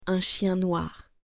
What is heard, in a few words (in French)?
"Un chien noir", French